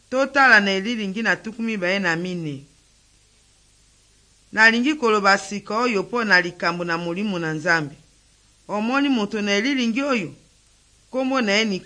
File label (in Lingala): good news lingala west, drama